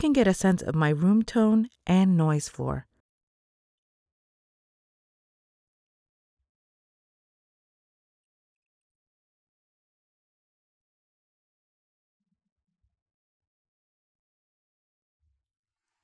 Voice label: Booth Sound Studio Bricks